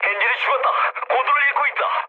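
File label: Korean damaged engine mood low, speech; War Thunder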